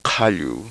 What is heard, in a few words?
uvular aspirated, quechua